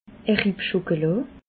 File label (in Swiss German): "ripp schokola", alsace